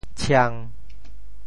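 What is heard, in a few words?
"tshiang1", Teochew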